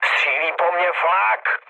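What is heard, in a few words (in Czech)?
Czech damaged anti-aircraft, mood medium; War Thunder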